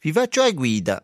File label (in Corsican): "vi facciu a guida", Rocca (sud)